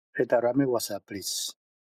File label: voice message